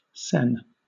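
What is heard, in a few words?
"sen", British English; pronunciations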